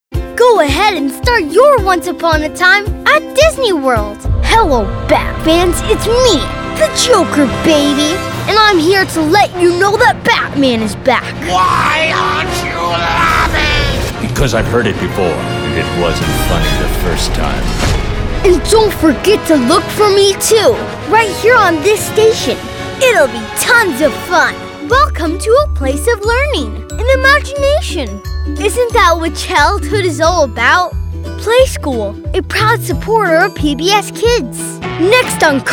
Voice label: Promo

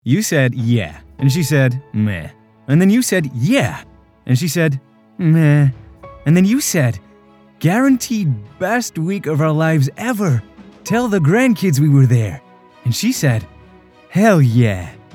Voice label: American Commercial

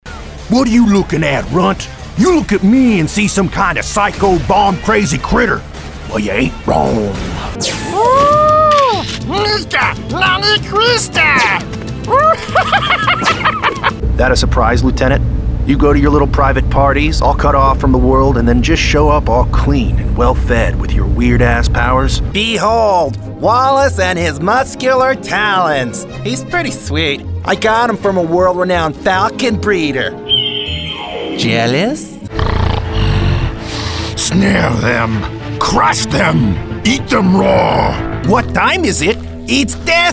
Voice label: Animation

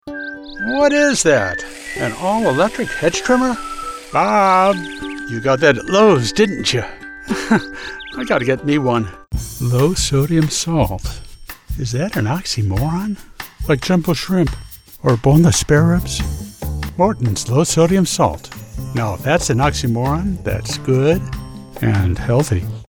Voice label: Conversational

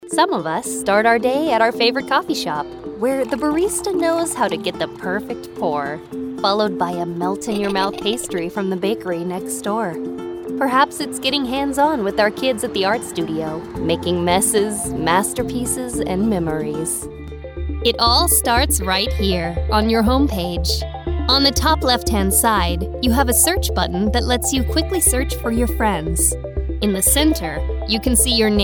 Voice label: American English narration

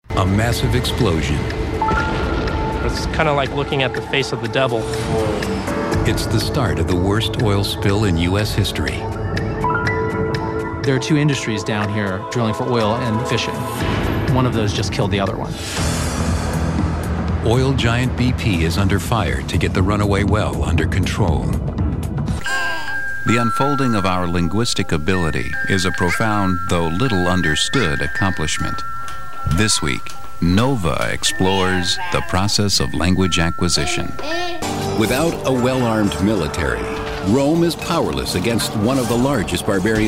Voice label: Documentary Narration

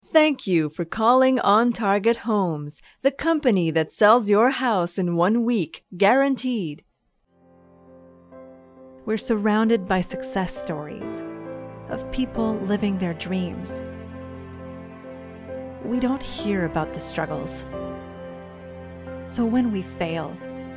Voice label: Adult Commercial

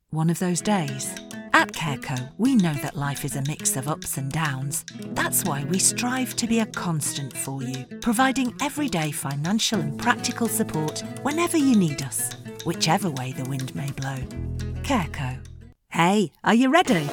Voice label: Voiceovers Variety; 3C Voiceovers

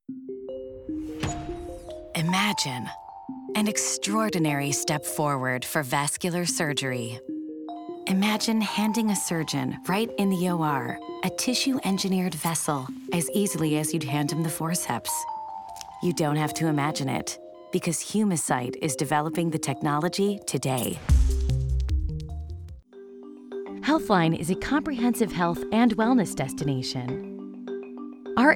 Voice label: corporate industrial

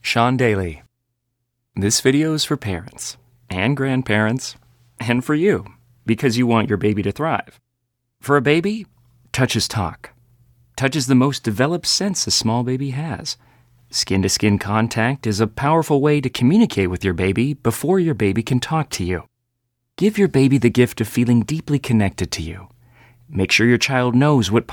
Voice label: eLearning

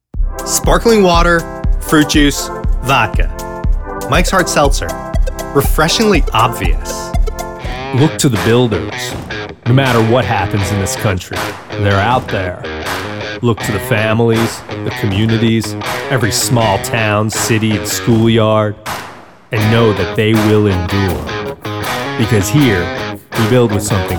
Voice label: Commercial VO; Commercial VO Reel

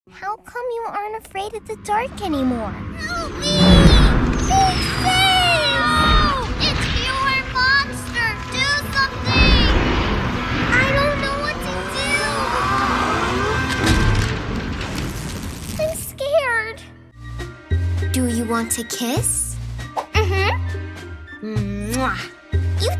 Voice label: Animation

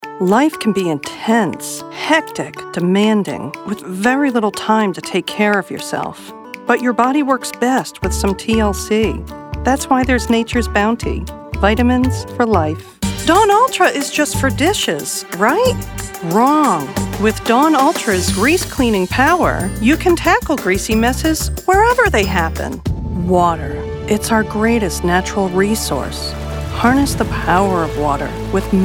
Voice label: Commercial

